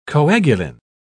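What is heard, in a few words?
"coagulin", English, British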